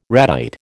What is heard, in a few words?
"ratite", American